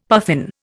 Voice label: "puffin", Indian; Dictionary